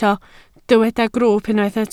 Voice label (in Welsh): "grwwp" Welsh